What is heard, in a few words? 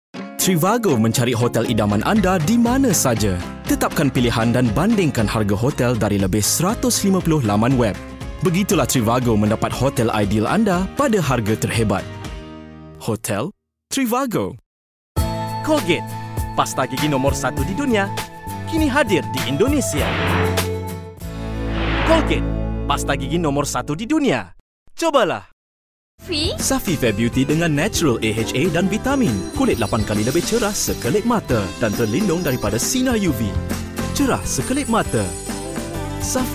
Malay Commercials